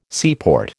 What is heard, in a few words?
"seaport", American